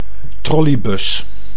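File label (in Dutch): "trolleybus", Dutch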